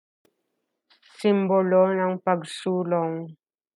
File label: Tagalog